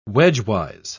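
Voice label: "wedgewise", British English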